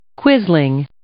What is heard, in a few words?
"quisling", English